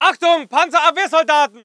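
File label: voice german infantry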